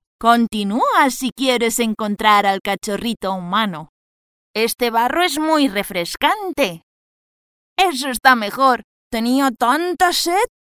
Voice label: Kindlich, ESP

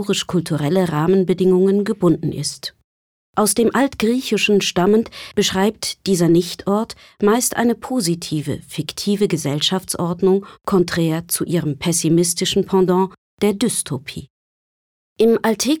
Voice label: Utopie Neutral

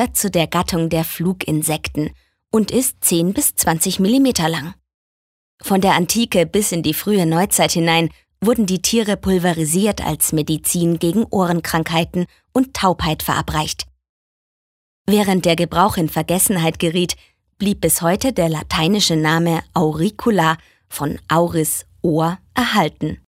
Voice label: Ohrwurm Freundlich

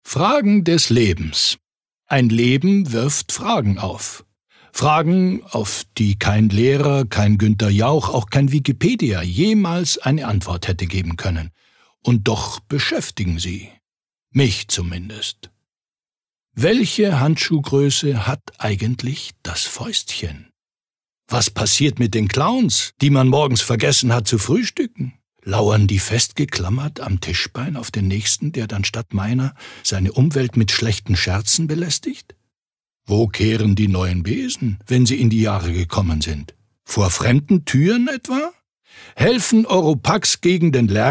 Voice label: Schauspiel Kraftvoll

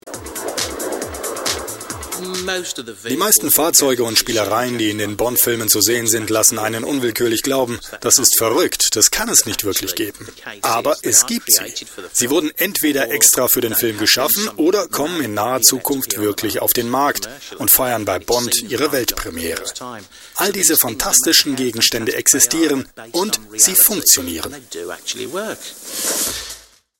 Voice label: Dynamisch

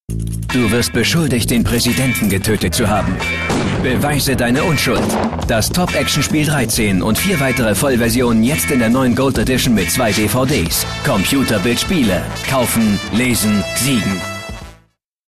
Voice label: Dynamisch; Fremdtake

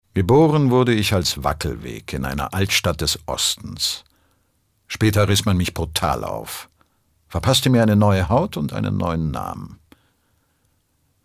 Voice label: Schauspiel Neutral

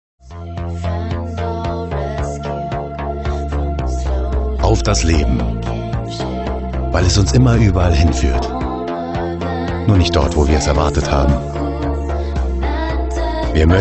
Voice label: Freundlich

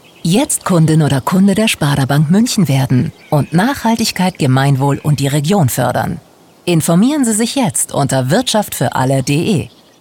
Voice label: seriös

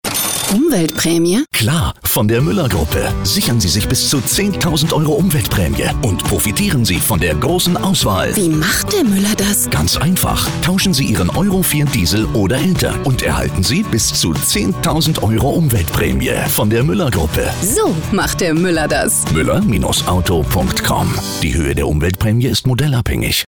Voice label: Aufgeregt

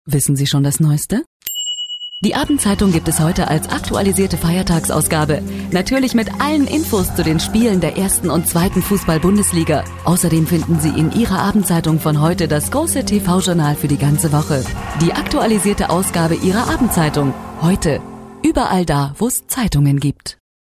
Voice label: Werbung, Neutral

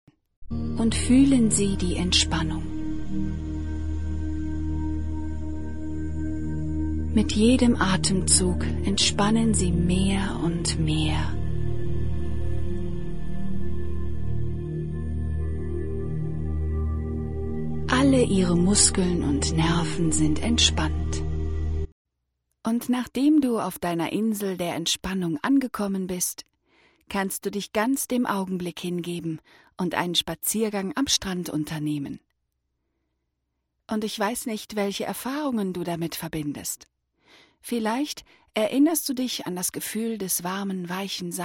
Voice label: Kommentar Sanft

